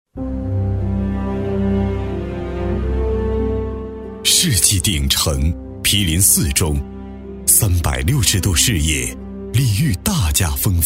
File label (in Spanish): Locutor varón chino